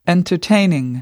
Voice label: "entertaining", English; adjective